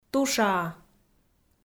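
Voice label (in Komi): "тушаа", Komi, female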